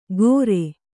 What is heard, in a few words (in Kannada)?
"ಗೋರೆ", Kannada